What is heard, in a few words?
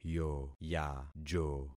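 "yoyajo", concatenated syllables; Affixing experiment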